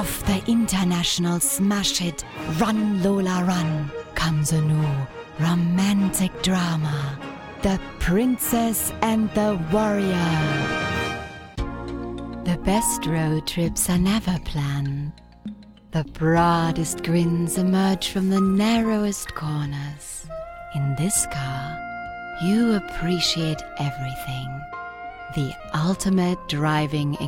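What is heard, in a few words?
German commercial English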